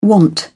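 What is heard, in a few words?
"want", English, British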